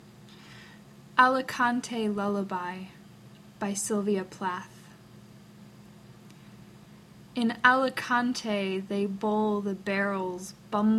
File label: Poem